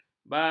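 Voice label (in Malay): "bami", Malay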